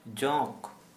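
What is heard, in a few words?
Santali male leech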